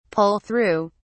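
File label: "pull-through", British English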